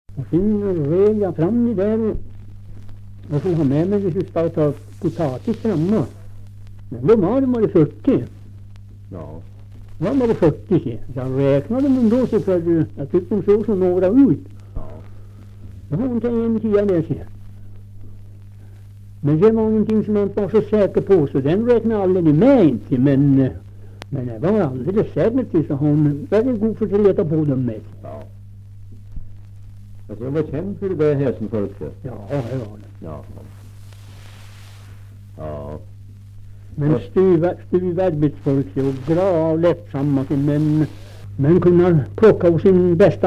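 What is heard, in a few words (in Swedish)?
Österförnebo Gästrikland, Svenska sagor, dialekter; Folkminnen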